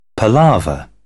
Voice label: "palaver", British; AmericanBritish